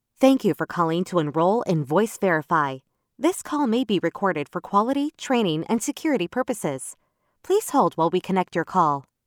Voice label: english american ivr